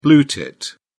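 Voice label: "blue tit", British English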